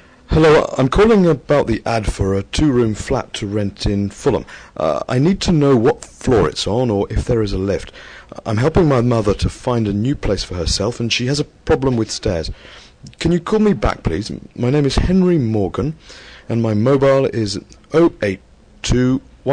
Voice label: ans ad phone mess